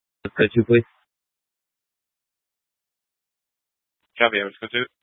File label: Fire Departments, scanner